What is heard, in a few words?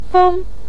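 "feng" neutral tone, Mandarin Chinese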